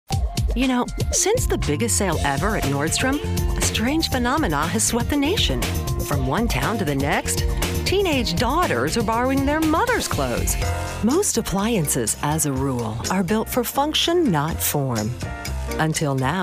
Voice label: Commercial Female

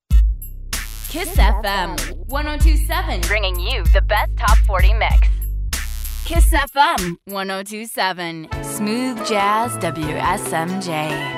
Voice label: Imaging Female; Commercial Voiceover, Radio Imaging

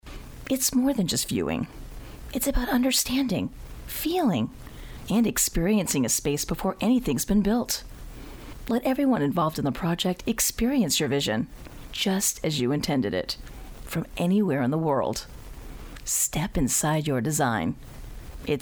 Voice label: Narration Female Corporate; Documentary

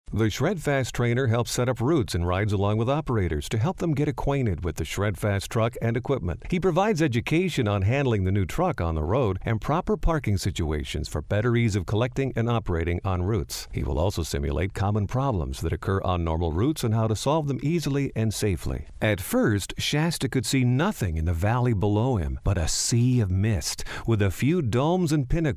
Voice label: Narration Male

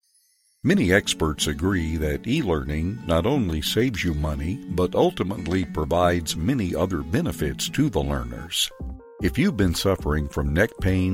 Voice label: eLearning Male

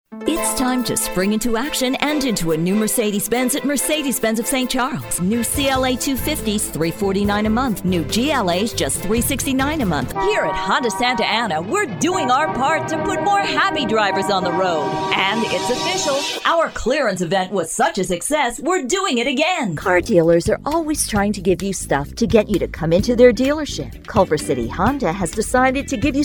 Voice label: Auto Female, commercial voiceover; TV and Radio, Auto Dealer

